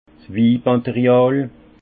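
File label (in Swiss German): "win material", Haut Rhin; vin; matériel viticole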